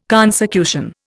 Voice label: "consecution", Indian